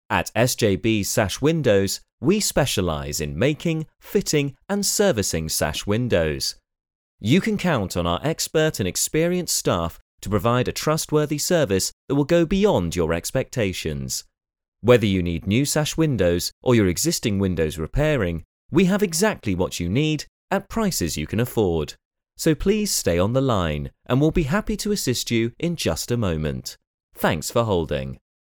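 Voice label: English UK Male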